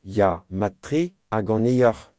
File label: fine, Breton; Ya, mat-tre. Ha ganeoc’h?